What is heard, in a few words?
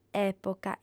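"època", Sardinian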